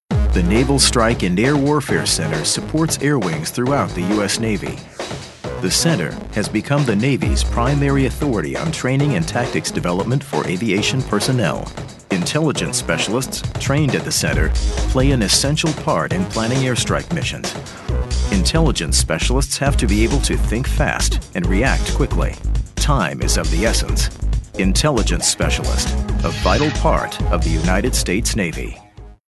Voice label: Dry

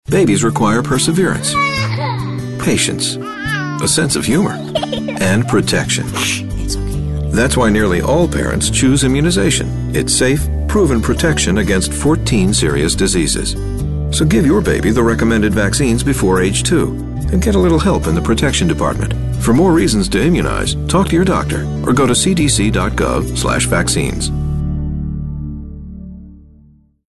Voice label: Warm Caring

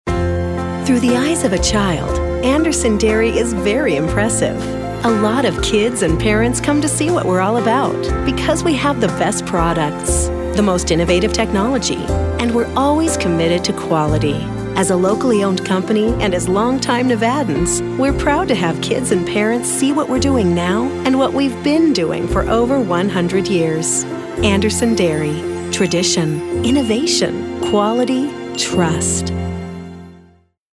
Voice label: Soft Sell

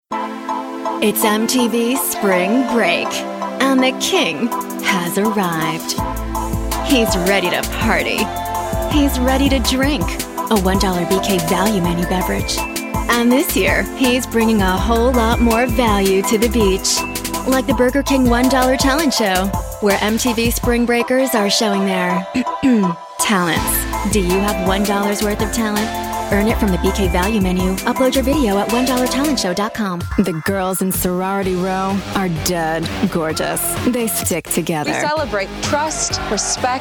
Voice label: TV Promo

